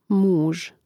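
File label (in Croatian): "muz", Hrvatski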